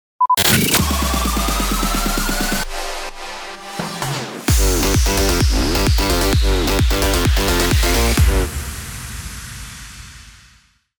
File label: SWEEPER NUMBER 1 HIT MUSIC STATION